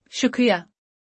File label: "Thank you", Urdu; Basic Greetings and Courtesy